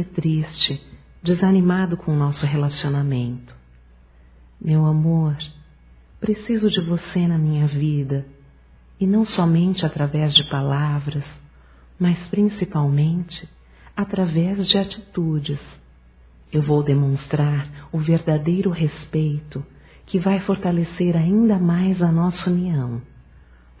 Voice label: Desculpe pelo Ciúmes Feminino; Telemensagens

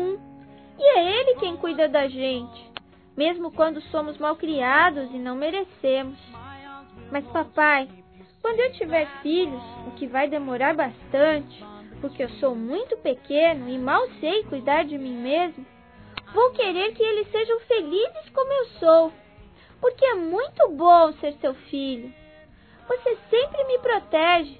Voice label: DIA DOS PAIS (VOZ INFANTIL), Telemensagens; Telemensagens 2017

